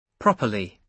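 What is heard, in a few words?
"properly", British English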